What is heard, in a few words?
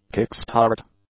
"kick-start", English; nouns